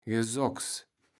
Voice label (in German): "Gesokks", Platt